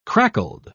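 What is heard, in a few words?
"crackled", British English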